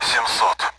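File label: Speech Sound Russian Common AWACS Numbers; DCS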